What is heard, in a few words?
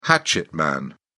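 "hatchet man", British English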